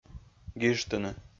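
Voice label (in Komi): "гижтыны", Komi, male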